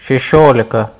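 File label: "Sesiolika", Lithuanian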